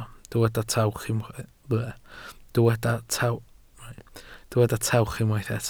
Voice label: "tawch", Welsh